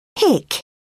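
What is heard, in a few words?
"hick", English, British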